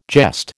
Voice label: "jest", American